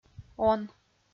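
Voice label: "он", Komi-Zyrian, female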